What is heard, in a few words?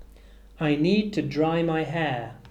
"dry", English